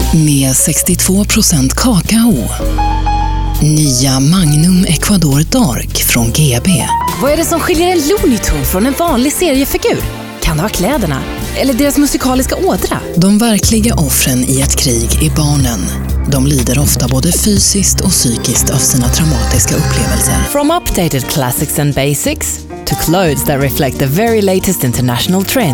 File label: female, Swedish compilation